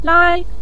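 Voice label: "lai", Mandarin Chinese, neutral tone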